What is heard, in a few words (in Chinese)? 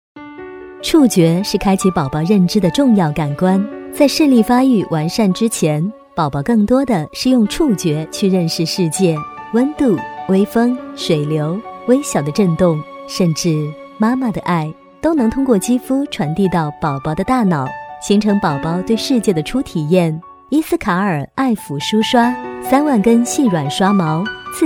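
广告 温柔, 女, 普通话; 品牌形象; 婴儿用品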